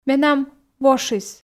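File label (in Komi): менам вошис, Komi, female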